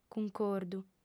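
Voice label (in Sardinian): "cuncordu", female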